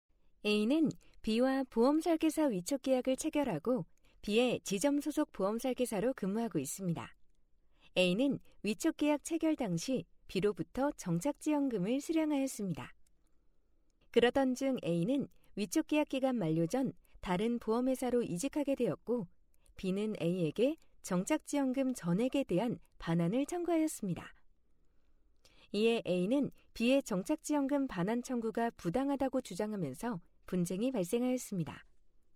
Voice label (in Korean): 이러닝 차분 친절